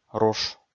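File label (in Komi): "руш", Komi, male; kpv audio dict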